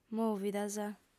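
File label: "mòvidas", female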